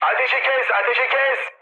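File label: Turkish player friendly fire, mood high, speech; War Thunder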